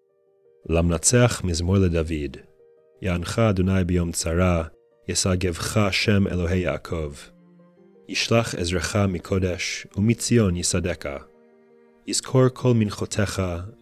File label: poetry and prayer psalm hebrew